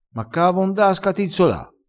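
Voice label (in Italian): "scatizzola", dialettale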